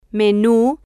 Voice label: "menu", spanish

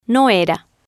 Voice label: "no era", Spanish

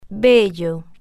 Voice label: "bello", spanish

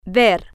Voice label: "ver" F, spanish

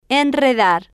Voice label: "enredar", spanish

